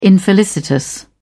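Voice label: "infelicitous", British English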